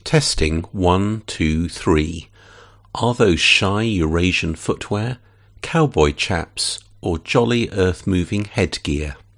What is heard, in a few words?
test Blue Yeti cardiod